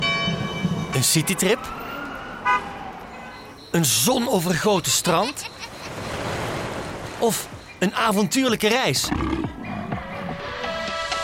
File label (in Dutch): Vlaams; Cheaptickets